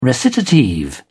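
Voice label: "recitative", British English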